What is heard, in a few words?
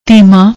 "dhima", Punjabi; ਧੀਮਾ